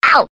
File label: ow cartoon; ooh ahh; ow ouch